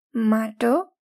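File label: "mud", Nepali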